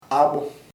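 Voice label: semen semen, Chamacoco word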